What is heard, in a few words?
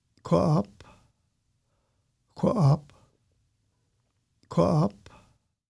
Pacific Crab Apple Fruit Musqueam name pronunciation